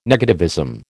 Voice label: "negativism", American